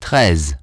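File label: "thirteen", English; iOS 4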